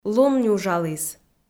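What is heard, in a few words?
"лун нюжаліс", Komi, female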